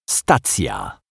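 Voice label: "stacja", Polish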